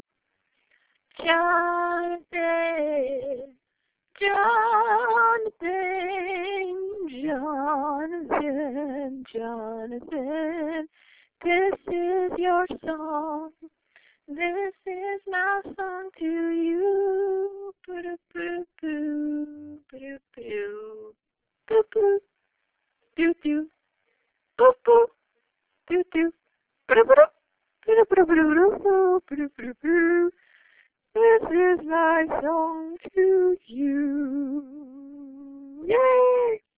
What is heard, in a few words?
Voice Message